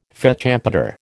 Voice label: "fÈte champÈtre", American

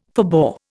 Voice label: "forbore", Indian

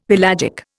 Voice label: "pelagic", Indian; Dictionary

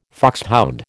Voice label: "foxhound", American

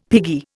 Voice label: "piggy", Indian; Dictionary

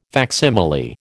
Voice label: "facsimile", American

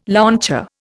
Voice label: "launcher", Indian

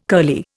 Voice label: "curly", Indian

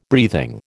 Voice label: "breathing", American

